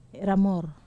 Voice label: "ramor", Wamesa